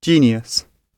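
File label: "genius", British English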